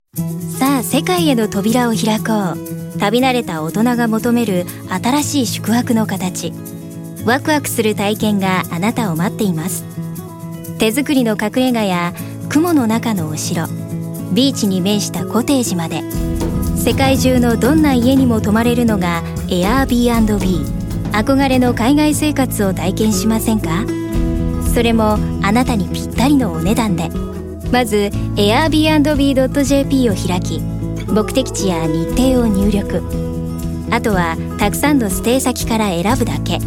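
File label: female, Japanese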